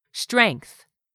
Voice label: "strength", english; 3000 words